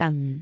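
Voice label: "dan4", Cantonese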